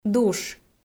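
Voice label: "душ", Komi, female; kpv audio dict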